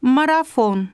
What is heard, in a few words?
russian marathon; samsung YP-U5; Audio User Interface